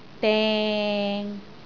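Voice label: "deng6", Cantonese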